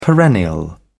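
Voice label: "perennial", British English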